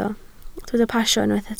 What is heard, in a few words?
"pasio", Welsh